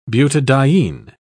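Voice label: "butadiene", English, British English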